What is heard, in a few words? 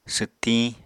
"swttiñ", Apatani